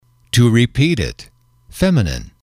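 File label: to repeat it, English, male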